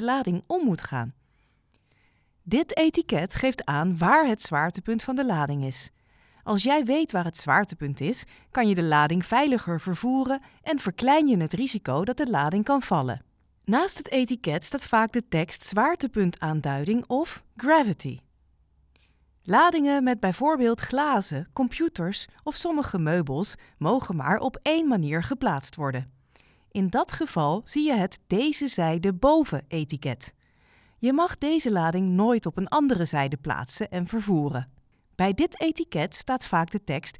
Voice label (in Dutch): e-learning Uitleg behandelingsetiketten